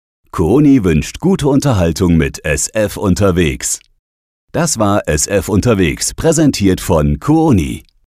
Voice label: Presenter; KUONI